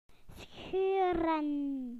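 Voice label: "to rip", British English; PictureDictionary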